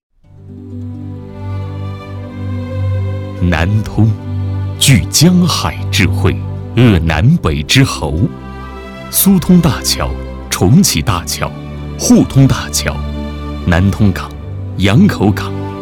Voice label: 男, 学习专题, 沉稳大气; 南通理工学院

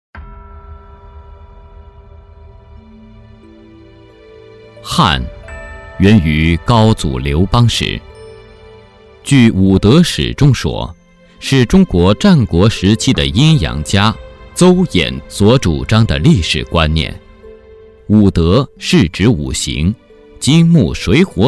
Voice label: 男 纪录片, 国语男声配音; 汉瓷

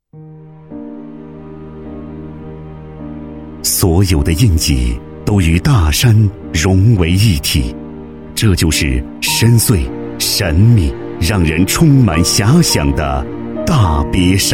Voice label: 男 公益, 国语男声配音, 浑厚; 大山里的孩子; 浑厚专题

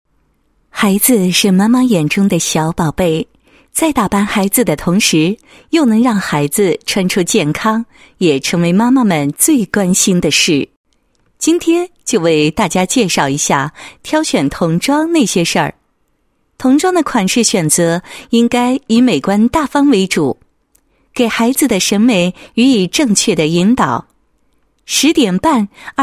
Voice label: 女 宣传广告 新版儿童棉袄宣传广告; 十点伴

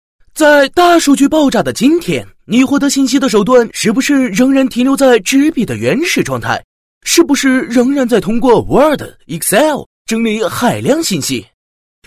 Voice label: 男 产品介绍 宣传介绍; Formtalk

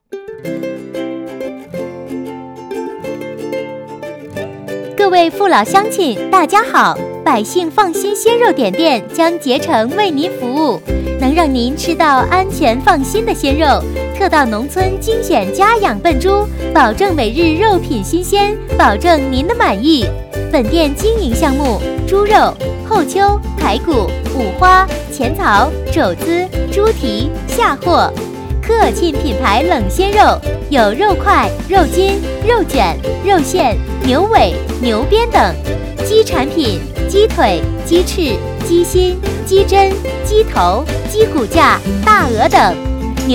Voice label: 女 促销, 国语女声配音; 百姓放心鲜肉点

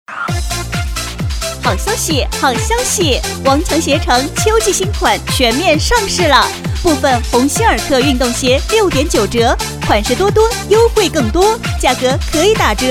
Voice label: 女78号 广告 好消息促销类, 国语女声配音 年轻

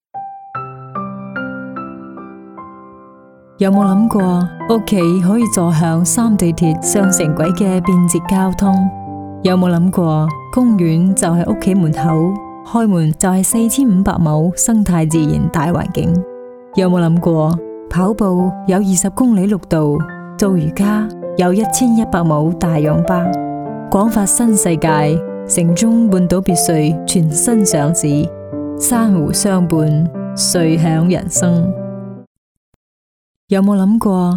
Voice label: 英女 粤语 感性温馨 别墅地产

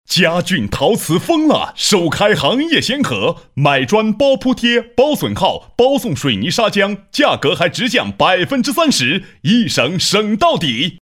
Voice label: 男声配音 促销广告 陶瓷; 国语男声配音

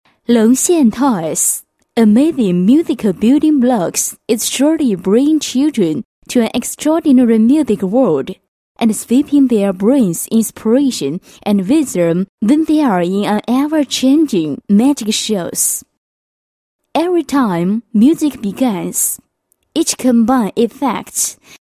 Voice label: 女 广告 儿童乐器积木英语广告; long Xian Toys

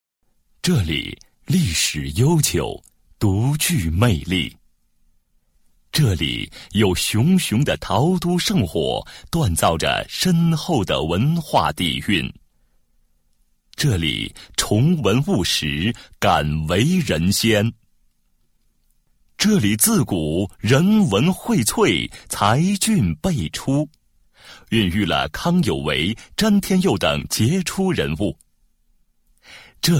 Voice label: 男2 专题 抒情类, 国语男声配音; 佛山

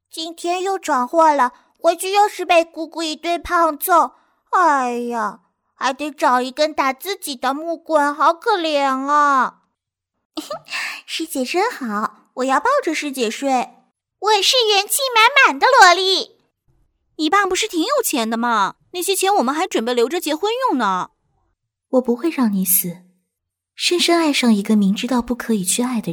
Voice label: 女 中文声线 展示年龄及不同性别

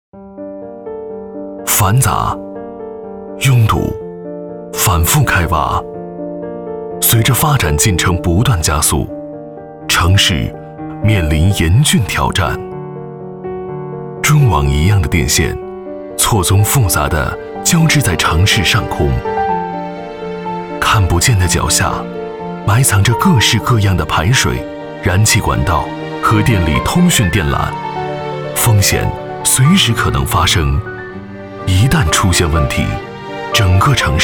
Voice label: 男 独白 电力设计院; 国网电力设计院

